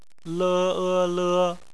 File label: "le", Chinese; phonetics; bpmf